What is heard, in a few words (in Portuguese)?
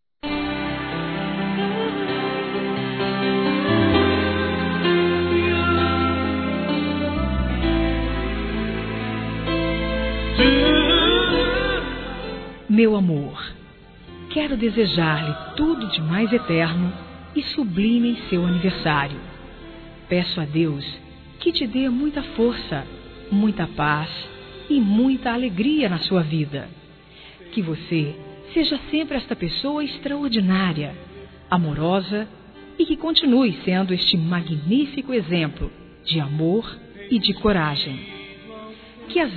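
ANIVERSARIO ROMANTICO EVANGÉLICO, feminino; Telemensagens; Telemensagens 2017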